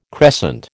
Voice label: "crescent", American